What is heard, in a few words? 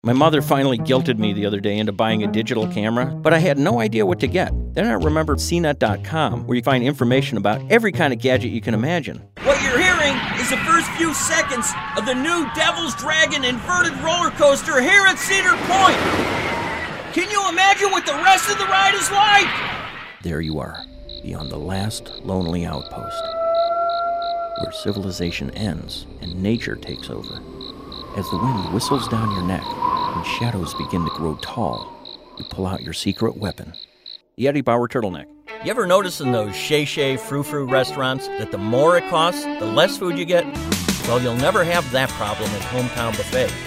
Commercial VO